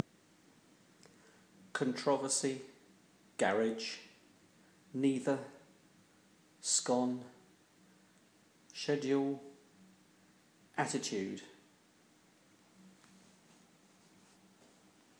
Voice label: horsham sussex male

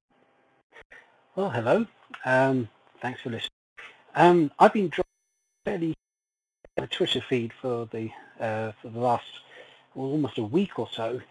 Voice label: nokia bluetooth headset microphone test

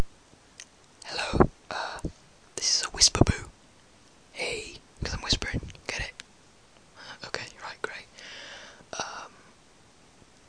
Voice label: whisper

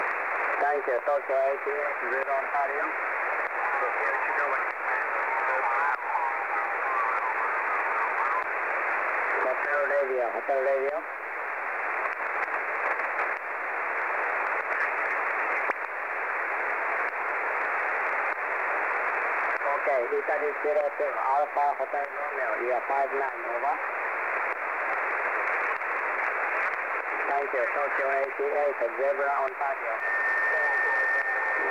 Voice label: 18MHz SSB

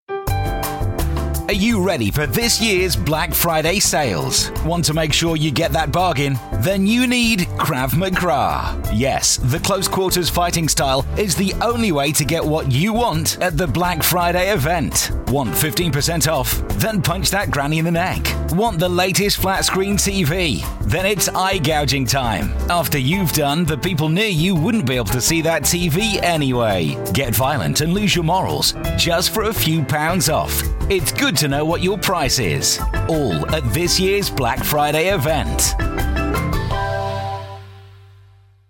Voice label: advert; black friday

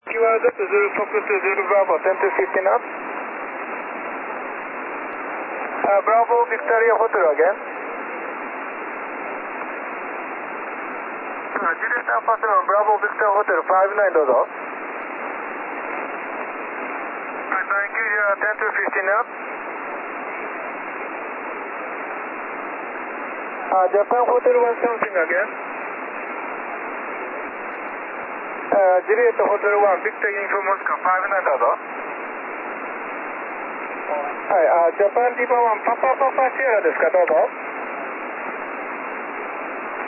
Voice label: 18.140 MHz SSB

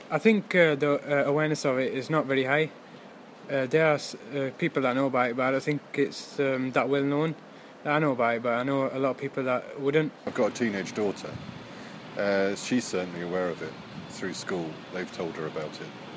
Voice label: vox pop; about ghb

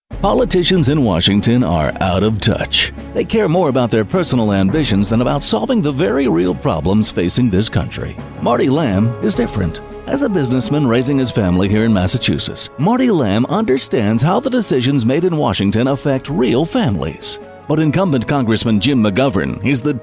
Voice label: political ad

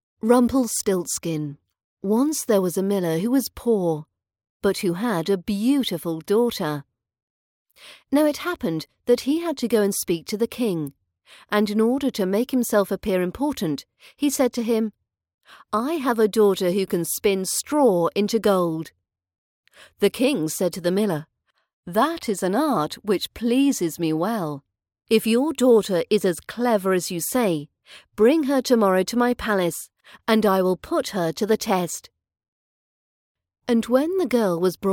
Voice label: rumplestiltskin fairytale british accent training

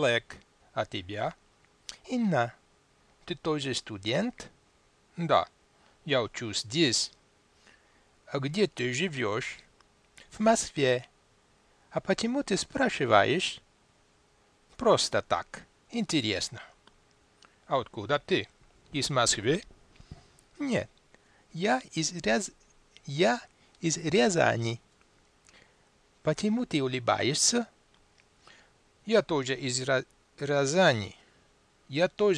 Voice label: Russian dialog

